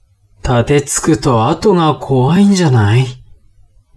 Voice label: man